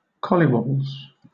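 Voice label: "collywobbles", British English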